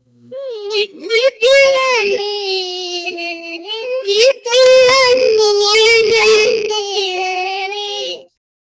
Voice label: distorted voices in paral, parallel dimension